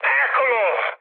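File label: Italian enemy detected mood high, speech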